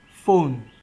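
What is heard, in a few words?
"phone", Nigerian Pidgin, male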